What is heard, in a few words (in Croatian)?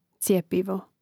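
"cijepivo", Hrvatski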